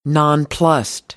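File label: "nonplussed", American English; AmericanBritish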